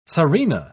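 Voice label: "tzarina", British English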